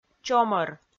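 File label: "чомӧр", Komi, female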